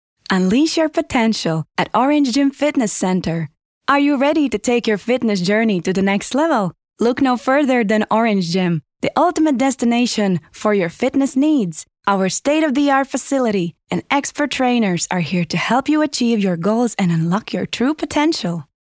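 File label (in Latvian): English Reklāma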